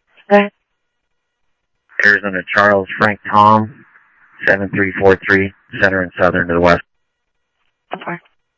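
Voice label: Mesa Police Department, scanner audio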